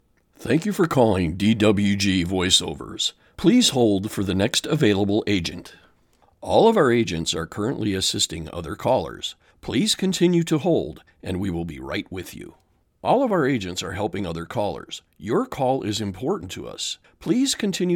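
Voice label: hold announcements telephony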